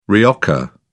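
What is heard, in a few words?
"rioja", British English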